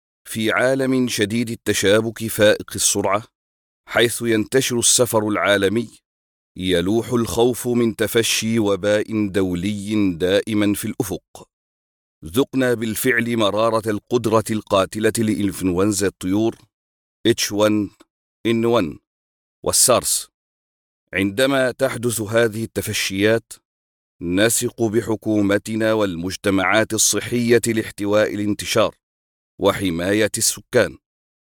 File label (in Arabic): وثائقي; كورونا